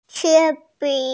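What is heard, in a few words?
"pencil", hearing impaired; qian1bi3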